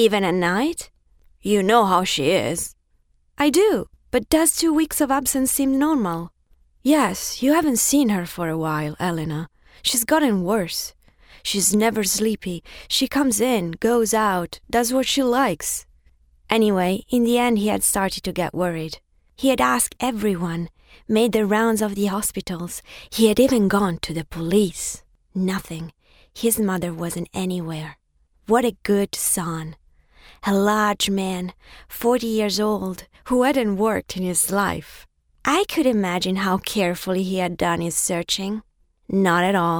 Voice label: english italian accent narration

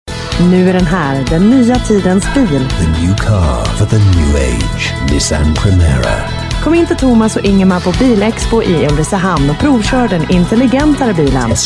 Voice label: swedish

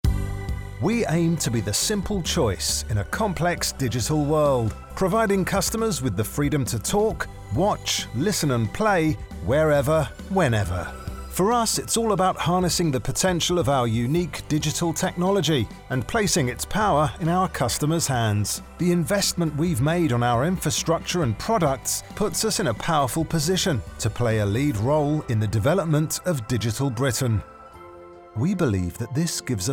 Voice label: corporates

